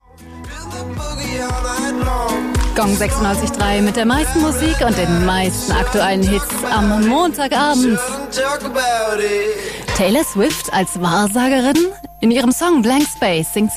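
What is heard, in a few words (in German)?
Kommentar Dynamisch; Fremdtake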